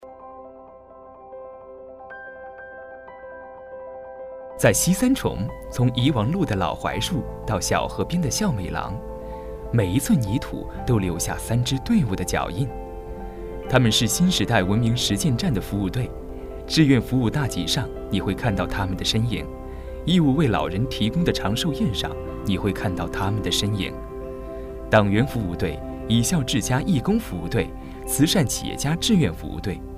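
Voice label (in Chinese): 宣传片配音美丽乡村活力版; 西三重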